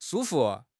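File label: "shu1 fu5", Mandarin Chinese; HSK3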